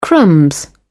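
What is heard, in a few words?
"crumbs", British English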